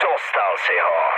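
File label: player killed enemy, Czech, mood low; speech; War Thunder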